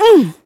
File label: Dizzy pain